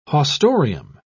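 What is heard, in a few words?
"haustorium", English, uk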